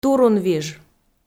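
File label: "турунвиж", Komi, female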